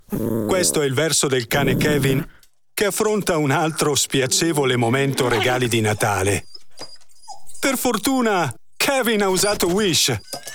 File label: italian radio imaging